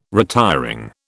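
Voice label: "retiring", British English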